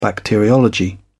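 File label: "bacteriology", British English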